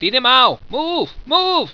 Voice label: babble move move

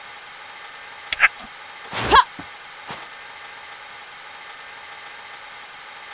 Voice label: idle female

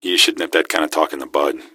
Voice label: answer, gasmask; scmod

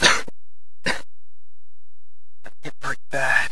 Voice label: hurt bad

